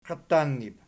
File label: "qiatannib", Archi